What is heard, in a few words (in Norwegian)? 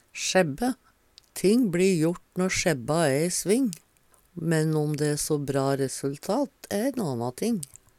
"sjebbe", Numedalsmål